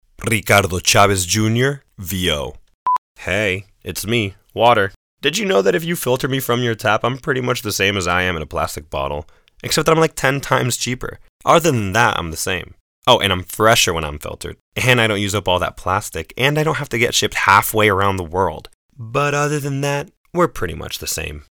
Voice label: Male Commercial